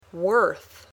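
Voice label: "worth", English word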